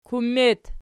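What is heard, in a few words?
"kummet", nominative, Archi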